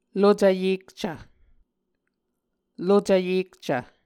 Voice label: "lucv yekcv", Muscogee; Mvskoke Words with Voicing Consonants; A Dictionary of Creek/Muskogee; SIL International